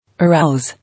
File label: "Arouse", English